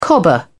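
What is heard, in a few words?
"cobber", British English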